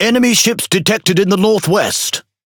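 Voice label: MESSAGE FOUND WAVE, British English; PVE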